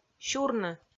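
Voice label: "сюрны", Komi, female; kpv audio dict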